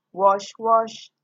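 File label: "wash wash", Nigerian Pidgin, female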